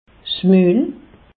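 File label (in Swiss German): "mul", Alsatian; medecine; bouche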